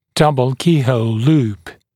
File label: "double keyhole loop DKH DKHL", dental english; glossary